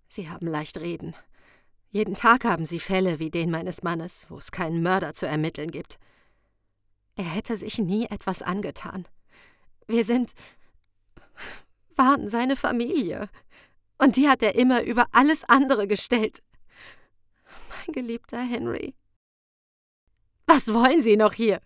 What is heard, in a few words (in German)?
trauernde Ehefrau; Hier erhältst du einen kleinen Eindruck meiner Stimme.